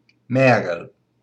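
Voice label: "Märel", Luxembourgish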